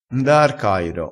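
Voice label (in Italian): "nda ar cairo", dialettale crespinese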